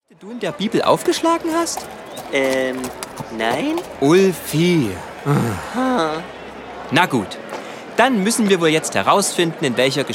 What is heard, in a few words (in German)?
Hoerspiel